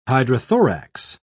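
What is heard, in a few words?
"hydrothorax", British English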